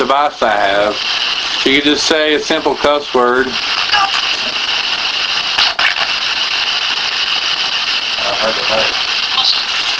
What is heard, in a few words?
Unexplained Female Voice say (No); Case 029 Christian Home